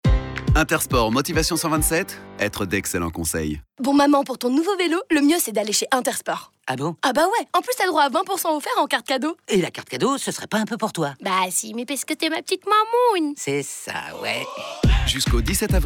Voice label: RADIO; ITS

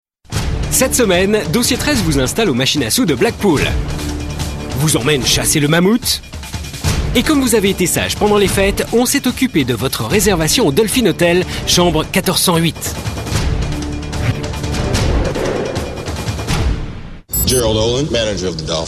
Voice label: Voix Off; Dossier 13

